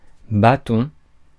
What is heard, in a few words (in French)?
"bâton", francais; Mots francais phonemes